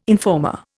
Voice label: "informer", Indian